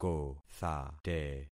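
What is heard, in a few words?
"kothate", concatenated syllables; Affixing experiment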